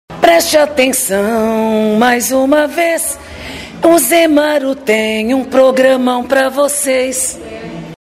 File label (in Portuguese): Vinheta longa